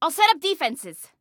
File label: Self Task Defenses, Female; Female 02